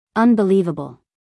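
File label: "unbelievable", American English, female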